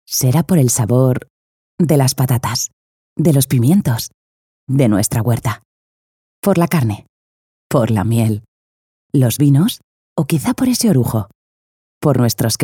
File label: spanish castilian documentaries